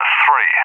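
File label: "3", English, UK; Digits; DCS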